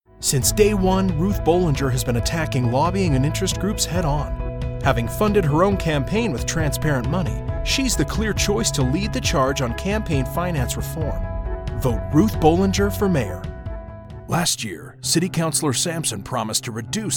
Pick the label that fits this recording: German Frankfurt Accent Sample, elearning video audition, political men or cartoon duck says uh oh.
political men